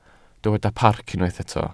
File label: "parc", Welsh